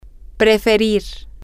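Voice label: to prefer, Spanish, female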